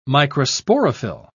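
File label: "microsporophyll", British English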